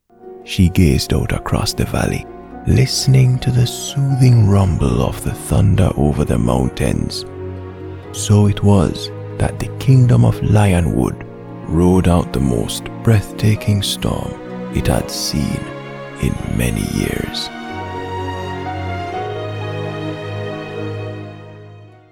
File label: Deep Soothing Voice